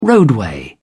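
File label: "roadway", British English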